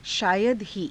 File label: "shaayada hii", hindi